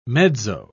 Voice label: "mezzo", pronounce

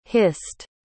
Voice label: "hissed", pronounce

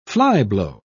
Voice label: "flyblow", pronounce

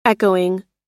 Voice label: "echoing", pronounce

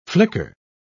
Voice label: "flicker", pronounce

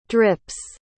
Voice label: "drips", pronounce

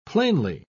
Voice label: "plainly", pronounce

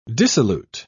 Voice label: "dissolute", pronounce

